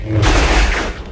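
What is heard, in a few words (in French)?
"oualala", French; Contacts avec Sabre Laser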